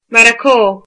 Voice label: Morocco, irish